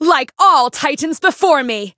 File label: Voice variable chant; flammelapis